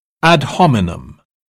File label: "ad hominem", British